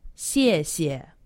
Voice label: "xiexie", Chinese; Survival Chinese